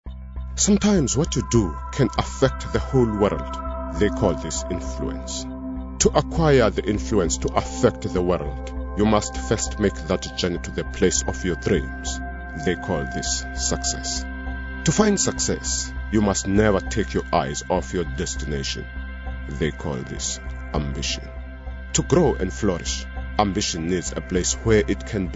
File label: Corporate